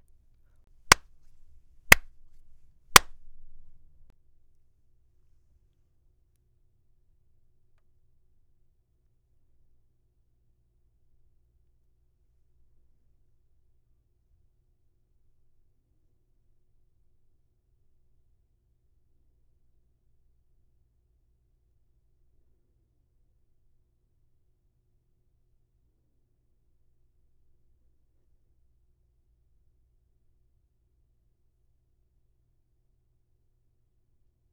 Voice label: Raw Booth Sample, Raw Audio Recorded In My Booth, Conversational, Millennial, Friendly Female Voice; Studio Quality Sample